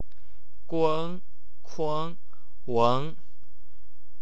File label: "ueng", wu chinese; 吴语; 滚困混